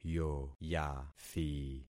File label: "yoyathi", concat syllables; Affixing experiment